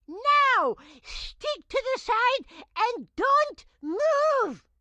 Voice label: grandma chopper hold to side tutorial; Blind Drive

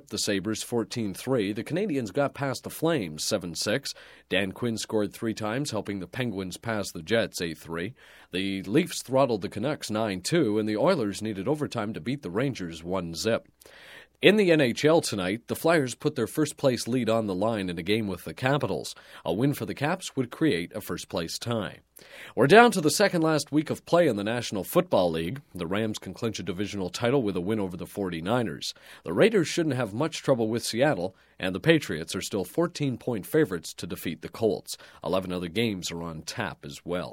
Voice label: Broadcast, Sports Generic Sports Report, Radio; Announcer; Sound Ideas; Series 2000

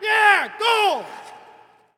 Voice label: Hockey Male Yeah Goal; Hockey Vocal Sounds

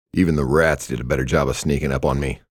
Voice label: thug response

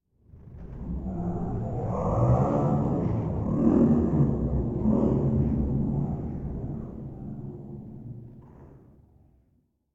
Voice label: Alien Talking - Big Reverb Long; Hollywood Edge; sound designer's toolkit v2

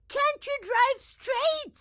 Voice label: grandma chopper annoyed; Blind Drive

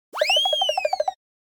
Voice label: comedy accent; NCH Library

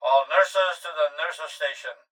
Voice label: Hospital Pa Voice; Medical; Blastwave

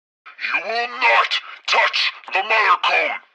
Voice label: tutorial chopper; midwave; Blind Drive

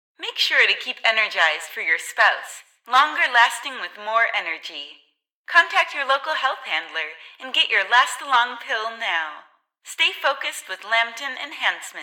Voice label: advert; Pitch Black - A Detective's Demise

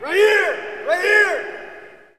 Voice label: Hockey Male "Right Here"; Hockey Vocal Sounds

